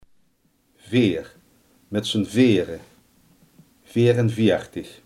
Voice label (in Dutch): "vier", limburgse